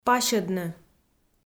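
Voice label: "пасьӧдны", Komi, female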